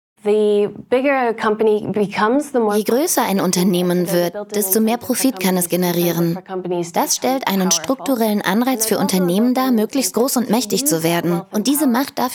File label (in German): Sprecherin Overvoice, Doku; Demo; Profit